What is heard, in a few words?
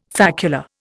"facula", Indian